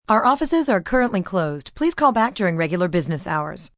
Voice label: Office Closed, Voicemail, telephone messages, female; VoiceBox